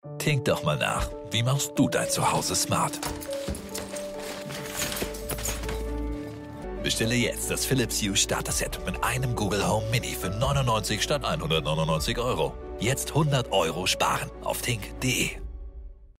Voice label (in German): tv spot; tink